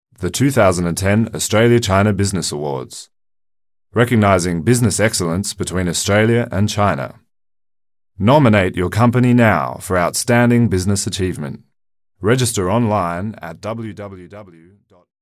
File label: voices ENGLISH male adult AUSTRALIA; KER-SOUND